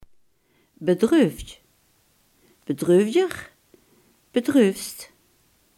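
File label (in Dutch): "bedroefd" Limburgish dialect word; bedroefd, bedreufdj (bedreufdjer, bedreufst)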